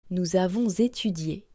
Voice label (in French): "nous avons étudié", French